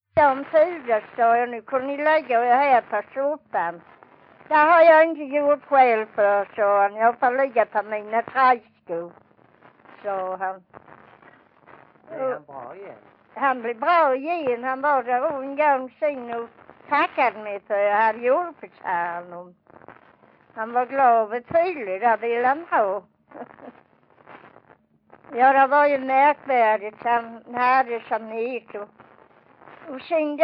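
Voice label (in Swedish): Vånga Skåne, dialekter; Folkminnen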